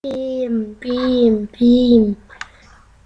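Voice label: "beam", spoken word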